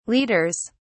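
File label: "leaders", English, British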